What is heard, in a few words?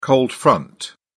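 "cold front", British English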